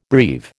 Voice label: "breve", American

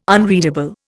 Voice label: "unreadable", Indian

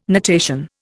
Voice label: "natation", Indian

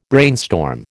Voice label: "brainstorm", American

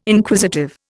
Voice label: "Inquisitive", Indian

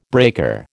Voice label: "breaker", American

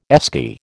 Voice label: "Esky", American

